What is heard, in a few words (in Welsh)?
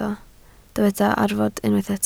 "arfod", Welsh